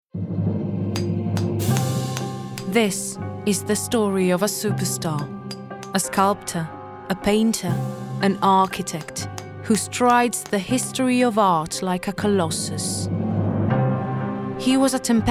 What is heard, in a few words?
female italian english with italian accent documentary